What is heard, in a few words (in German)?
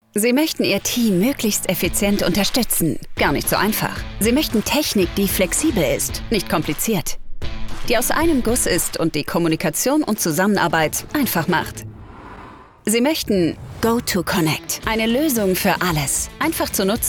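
werbung online spot cool souverän; gotoconnect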